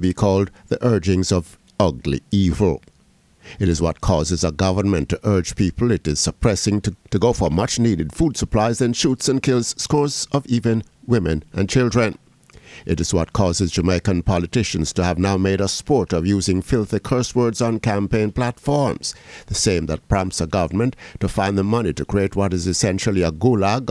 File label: COMMENTARY